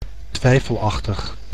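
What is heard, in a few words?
"twijfelachtig", Dutch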